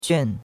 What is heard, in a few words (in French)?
"juan4", chinois; Cours-de-Chinois